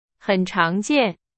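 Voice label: Chinese, example sentence; hsk2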